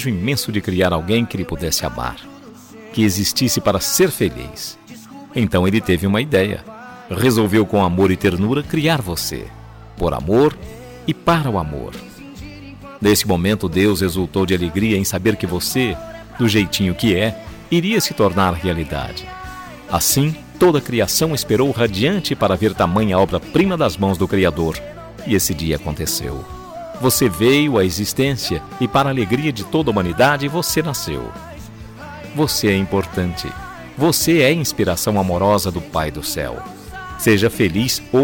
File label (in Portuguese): ANIV MADRINHA MASC, telemensagem; madrinha padrinho